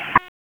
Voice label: Police Southeast District Tac; Raleigh; Tac 2

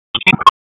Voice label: Police Tac; wake; p25

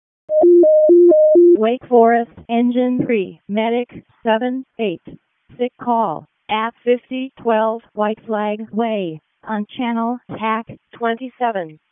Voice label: EMS ALERT, p25; wake

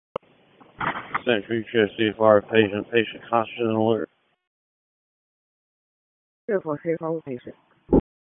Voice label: PS TAC, p25; wake

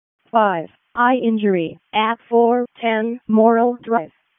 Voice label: WC EMS ALERT, p25; wake

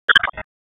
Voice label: PS TAC, p25; wake

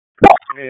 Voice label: PS TAC, p25; wake

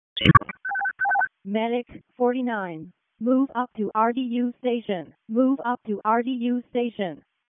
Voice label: EMS ALERT, p25

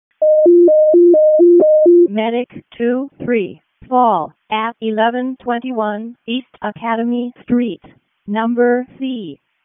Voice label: EMS ALERT; p25; wake

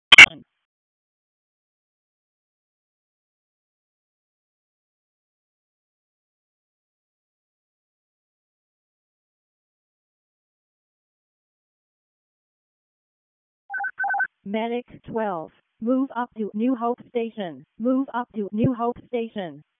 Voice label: EMS ALERT, p25

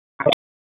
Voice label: Police Spec Ops Tac 4, p25; wake

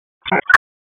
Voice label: Police Southeast District Tac; Raleigh; Tac 2; wake

